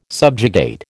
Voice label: "subjugate", American

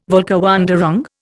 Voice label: "Völkerwanderung", Indian

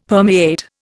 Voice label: "permeate", Indian; Dictionary

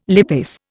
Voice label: "lipase", Indian

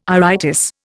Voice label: "iritis", Indian

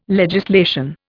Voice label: "legislation", Indian

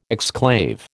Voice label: "exclave", American

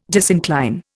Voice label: "disincline", Indian

